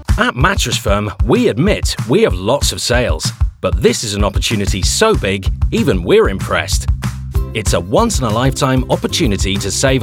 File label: English Reel; Native Accent: Northern Irish; Rich and Friendly; Age: 20-30; Age: 30-40